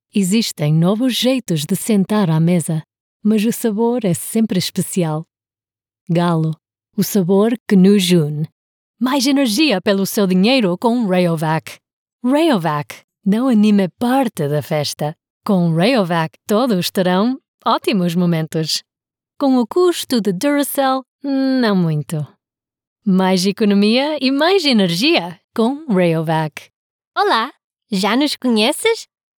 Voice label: PORTUGUESE (European) - Enthusiastic - Warm